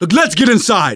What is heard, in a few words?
stay together inside, survivor voice; Left 4 Dead 2; left4dead2 dlc3